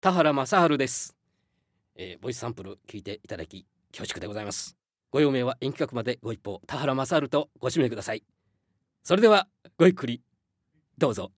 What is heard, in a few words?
freetalk, male voice; フリートーク